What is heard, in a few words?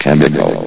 "chemical", vox; Source Engine; bshift